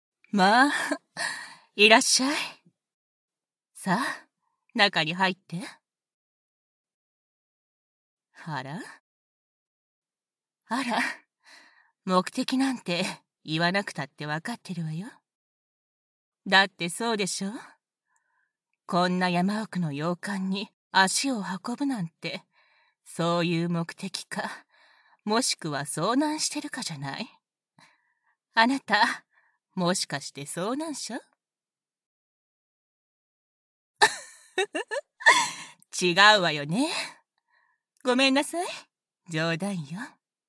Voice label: 洋館到着＆女主人の出迎え, バイノーラルマイク 効果音なし

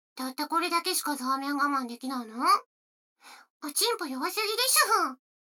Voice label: 女の子 台詞

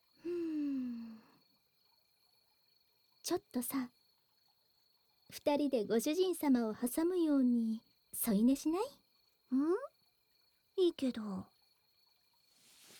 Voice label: オイルと耳舐め, ASMR

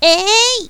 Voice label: "えーい", 攻撃

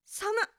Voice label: 寒っ, 驚く少女

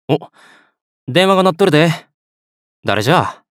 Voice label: 電話受信, 岡山弁; 樹

